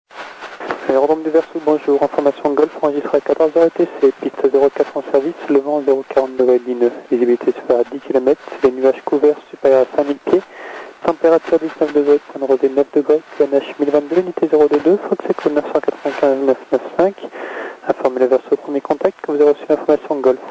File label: atis; grenoble golf